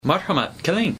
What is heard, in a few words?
Uzbek please come in